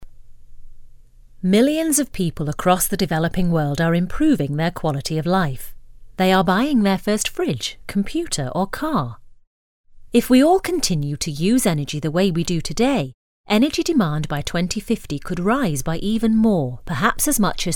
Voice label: Corporate, UK; Voice-over demos